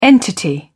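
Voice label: "entity", English, British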